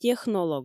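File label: "технолог", Komi, female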